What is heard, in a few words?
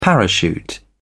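"parachute", British English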